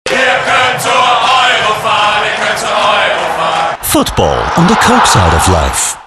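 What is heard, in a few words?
german Austrian male commercial; voice demos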